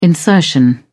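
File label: "insertion", British English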